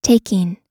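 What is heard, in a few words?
"taking", US English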